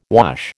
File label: "wash", American